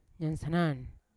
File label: "nyansanan" to run, Yawa